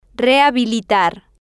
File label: "rehabilitar", Spanish